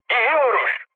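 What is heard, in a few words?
bomb success, mood high, Turkish; War Thunder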